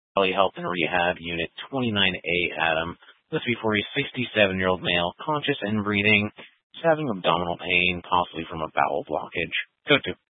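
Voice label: Fire Dispatch, scanner audio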